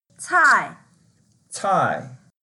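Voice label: "cai4", Mandarin Chinese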